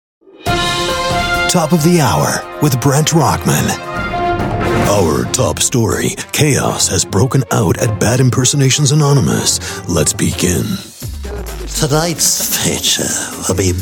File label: various animation imitation voiceovers